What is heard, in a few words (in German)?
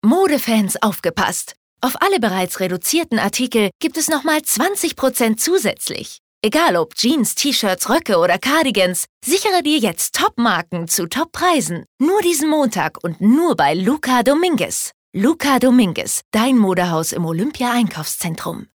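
advert, Dynamisch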